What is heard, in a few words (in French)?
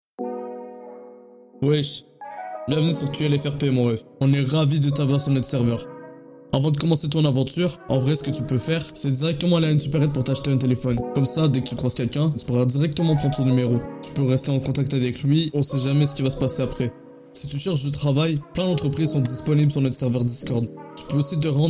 voix off